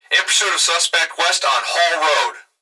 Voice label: Emergency Human Male Voice Police Radio In Pursuit Of Suspect West On Road; Hall Road